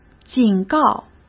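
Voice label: "警告", Mandarin Chinese; chinese-flashcards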